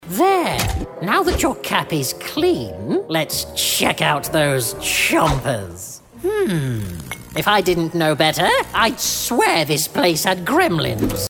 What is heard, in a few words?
animation dentist